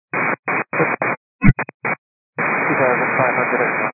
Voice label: 122.925 MHz; scanner radio